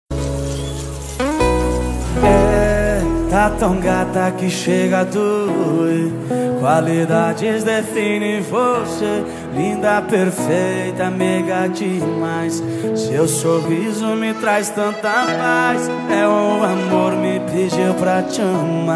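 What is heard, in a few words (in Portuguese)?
SAUDADES ROMANTICAS female, telemensagem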